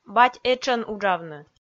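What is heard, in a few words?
"бать этшӧн уджавны", Komi, female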